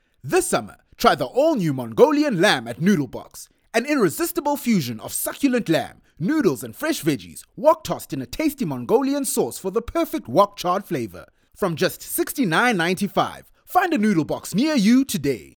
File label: English Hard Sell; OSM Talent